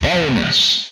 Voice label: "Bonus", Speech; retro arcade